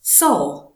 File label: saw, sounds of common words in english